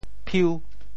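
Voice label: "phiu5", Teochew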